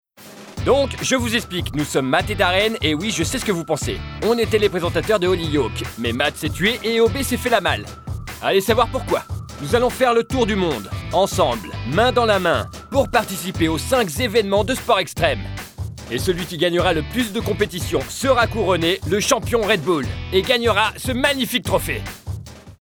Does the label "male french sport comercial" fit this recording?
Yes